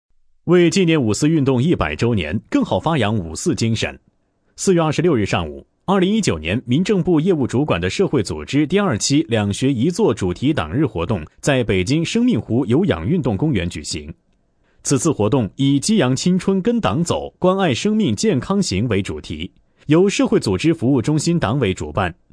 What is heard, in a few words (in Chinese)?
男 专题 新闻类